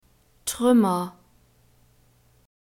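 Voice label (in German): "trümmer", German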